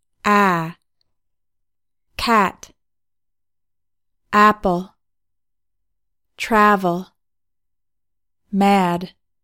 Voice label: english vowels